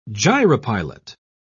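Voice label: "gyropilot", British English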